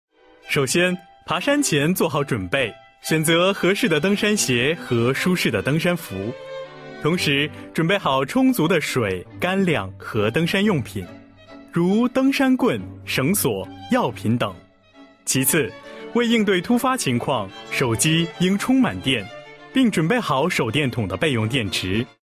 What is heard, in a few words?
CHINESE MALE